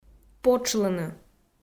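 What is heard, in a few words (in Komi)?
"потшлыны" Komi, female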